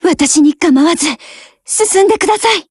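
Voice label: Japanese, Voice; BREAK; GF Alarm; RPD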